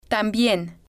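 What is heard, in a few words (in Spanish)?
"tambien", Spanish, female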